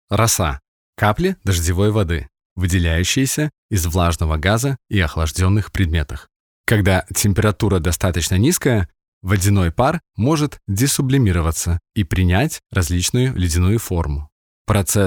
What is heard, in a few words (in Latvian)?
RU Infografika